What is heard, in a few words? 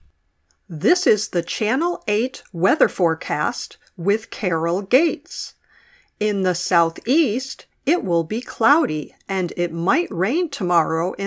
Dialog